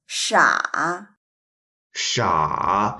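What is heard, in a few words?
"sha3", Mandarin Chinese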